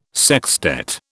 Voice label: "sextet", British